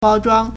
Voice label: "bao1zhuang1", Chinese

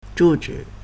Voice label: "zhu4 zhi3", Chinese

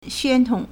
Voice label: "xuan1tong3", Chinese

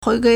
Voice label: "hui2gui1", Chinese

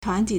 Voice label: "tuan2ti3", Chinese

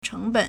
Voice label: "cheng2ben3", Chinese

